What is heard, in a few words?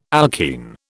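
"alkene", British English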